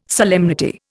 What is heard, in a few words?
"solemnity", Indian